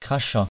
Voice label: "crachat", frengjisht

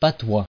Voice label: "patois", frengjisht

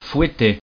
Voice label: "fouetter", frengjisht

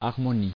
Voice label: "harmonie", frengjisht

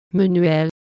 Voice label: "menuet", frengjisht

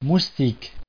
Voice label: "moustique", frengjisht

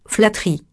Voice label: "flatterie", frengjisht

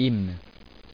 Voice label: "hymne", frengjisht

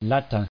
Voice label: "latin", frengjisht

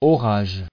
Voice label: "orage", frengjisht

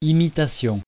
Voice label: "imitation", frengjisht

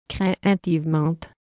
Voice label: "craintivement", frengjisht

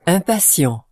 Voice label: "impatient", frengjisht

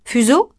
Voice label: "fuseau", frengjisht

